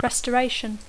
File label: "restoration", English